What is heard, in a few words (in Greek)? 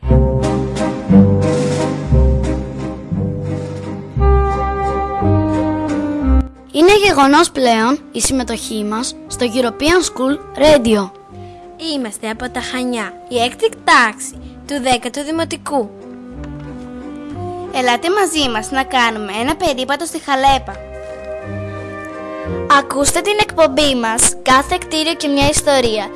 spot; ST taksh; κάθε κτίριο μια ιστορία